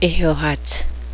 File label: laugh; Éhohatse.; He is laughing